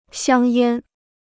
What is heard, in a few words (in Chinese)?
"香烟", Chinese